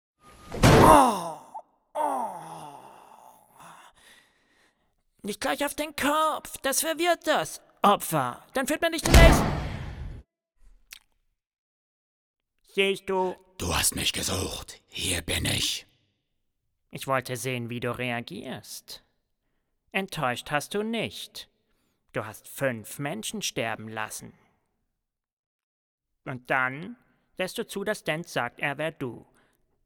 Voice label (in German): Schauspiel Sprechstil Thriller